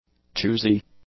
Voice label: "choosy", English; adjectives